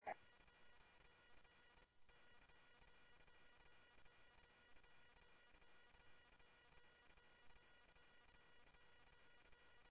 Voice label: Scottsdale Police, scanner audio

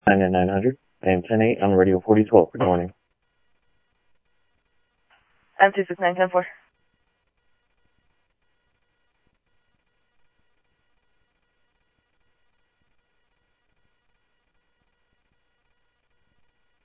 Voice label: Security, scanner audio

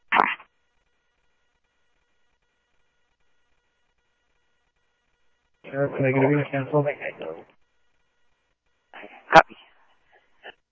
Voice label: scanner audio trunk

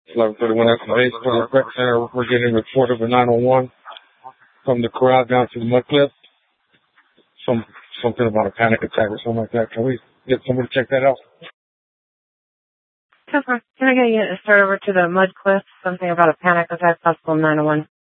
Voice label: Sheriff, scanner; Shrf Lakes; Maricopa County (P25); Thompson Peak

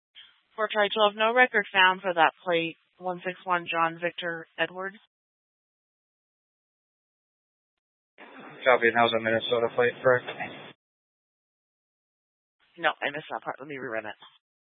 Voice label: Mesa PD Dispatch

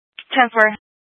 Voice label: Mutual Aid-Intersystem Interop B, scanner

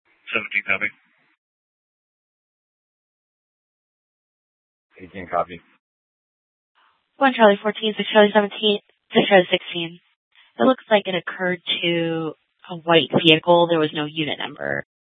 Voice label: Police Department, Dispatch; TOPAZ Regional Wireless Cooperative (TRWC) - Mesa Simulcast; 1 Fiesta Dispatch

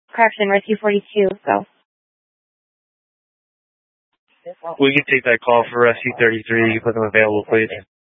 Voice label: Fire Dispatch

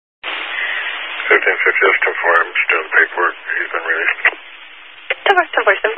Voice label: Highway Patrol, Phoenix Metro East Area, scanner

